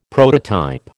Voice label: "prototype", American